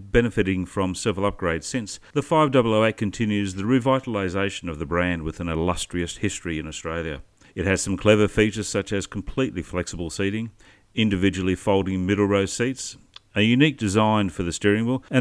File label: Radio Segment; Peugeot 5008 GT Line